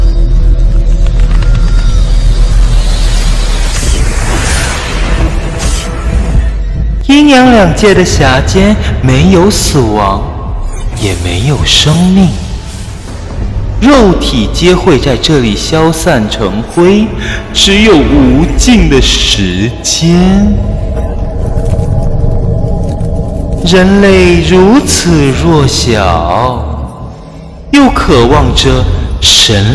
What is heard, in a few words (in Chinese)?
妖怪配音; 八歧大蛇